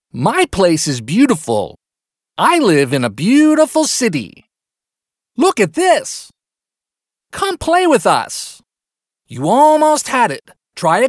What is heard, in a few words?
US male children